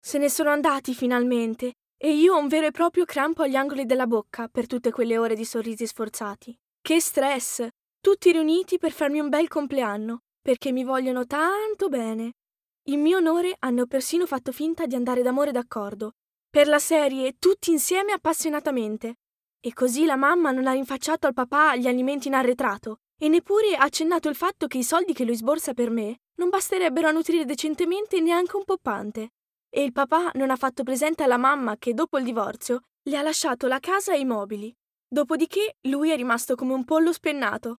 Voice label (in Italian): lettura